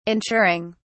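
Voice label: "ensuring", British English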